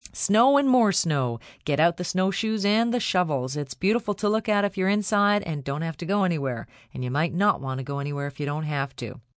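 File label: Weather Snow F, Broadcast, weather, female weather report, snow and more snow, news; human voice speaking; VoiceBox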